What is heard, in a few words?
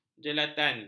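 "jelalatan", Malay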